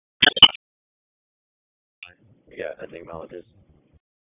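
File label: Raleigh Police Southeast District Dispatch, p25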